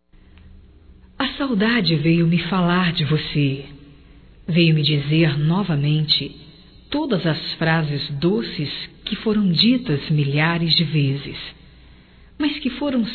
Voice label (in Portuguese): SAUDADES ROMâNTICA; Românticas